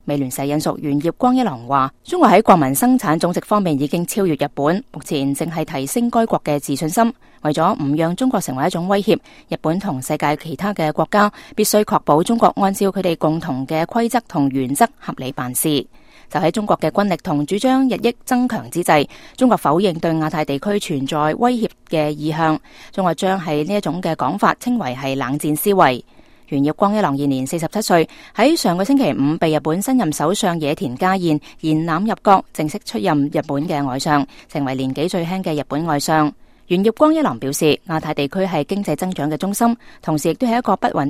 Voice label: cantonese